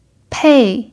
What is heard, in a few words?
"pei4", chinese pinyin